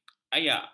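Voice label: "ayak", Malay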